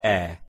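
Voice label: "e", Hungarian pronunciation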